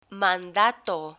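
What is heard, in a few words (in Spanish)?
"mandato", Spanish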